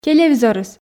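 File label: "телевизорыс", Komi, female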